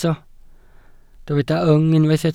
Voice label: "yng", Welsh

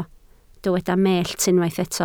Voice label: "mellt", Welsh

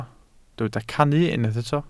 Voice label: "cannu", Welsh

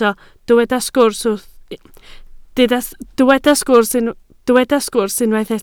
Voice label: "sgwrs", Welsh

